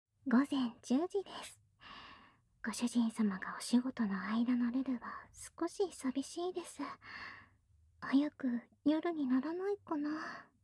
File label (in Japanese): 時報ボイス 午前10時です; 好感MAX従順奴隷メイド~甘トロ媚び媚び奉仕~